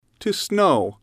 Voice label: "to snow", English